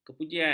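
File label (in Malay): "kepujian", Malay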